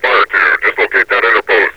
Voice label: fire to dislocate interpose, metropolice vo; Half Life Alyx

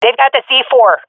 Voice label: see bomb pickup, swat fem; vo; agents; Source Model Packs; Counter Strike Model Pack; CS2

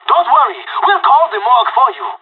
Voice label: on a roll brag, pirate

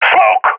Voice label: throwing smoke, balkan; vo; balkan epic

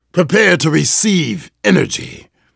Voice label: "prepare", vortigaunt; Half Life 2; Episode 2

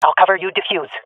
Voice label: cover defuse, swat fem; vo; Counter Strike Model Pack; CS:GO

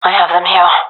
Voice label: sees enemy, gendarmerie fem; vo

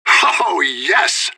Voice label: radio bot cheer; fbihrt

